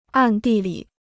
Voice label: "暗地里", Chinese

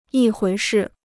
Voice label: "一回事", Chinese

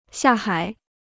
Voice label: "下海", Chinese

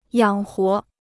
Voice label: "养活", Chinese

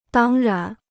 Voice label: "当然", Chinese

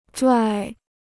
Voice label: "拽", Chinese

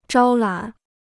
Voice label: "招揽", Chinese

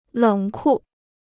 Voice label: "冷酷", Chinese

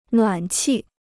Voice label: "暖气", Chinese

